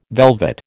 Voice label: "velvet", American